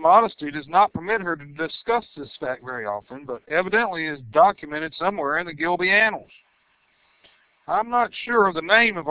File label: spanish